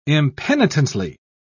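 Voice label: "impenitently", British English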